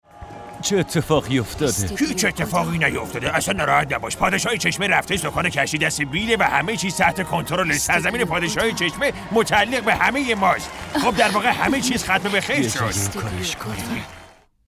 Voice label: dub